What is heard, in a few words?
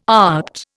"opt", Indian